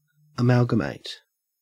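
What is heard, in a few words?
"amalgamate", Australian English; pronunciations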